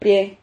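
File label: "prie", Spanish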